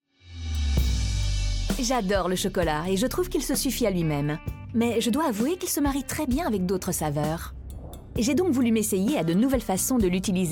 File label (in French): Narration Extrait; RACHEL KHOOS CHOCOLATE; voix de la serie rachel khoos chocolate